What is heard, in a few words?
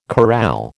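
"corral", American English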